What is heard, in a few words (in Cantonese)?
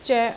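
"zoek3", Cantonese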